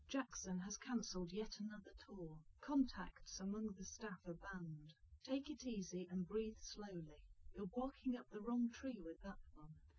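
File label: female live recording; SiSEC10; underdetermined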